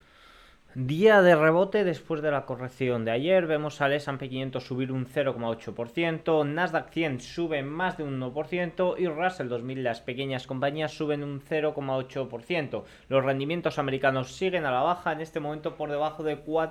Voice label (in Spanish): radio; para el oro mientras que los problemas vuelven a los bancos regionales